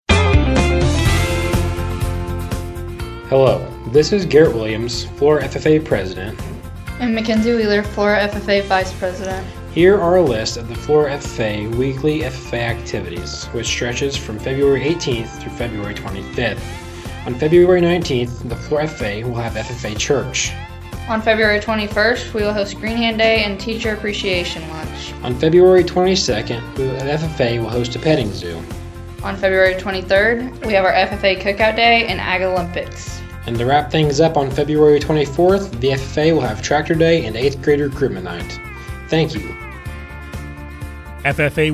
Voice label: male female; Flora FFA